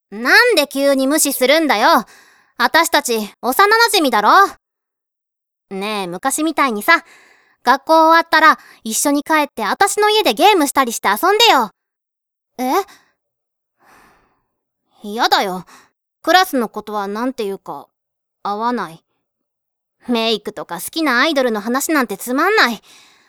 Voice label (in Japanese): 活発でボーイッシュな女の子